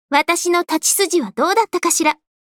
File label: Voice victory